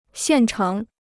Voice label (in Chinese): "现成", Chinese